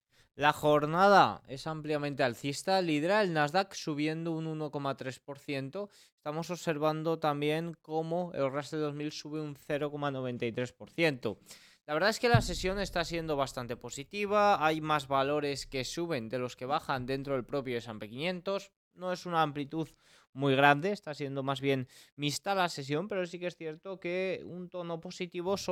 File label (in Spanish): radio